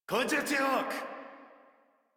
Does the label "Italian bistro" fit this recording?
No